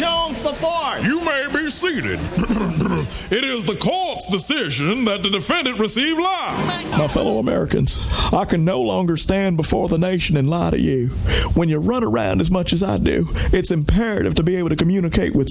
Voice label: character vox